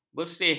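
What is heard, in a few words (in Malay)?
"bersih", Malay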